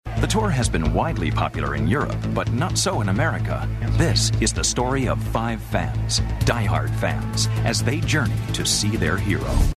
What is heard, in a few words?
Conversational and spirited